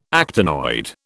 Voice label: "actinoid", British